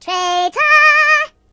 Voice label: "Traitor", voices, Singer; HedgewarsMobile